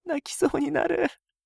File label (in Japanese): 泣きそうになる